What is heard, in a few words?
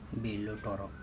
"belotOro" turtle, andamanese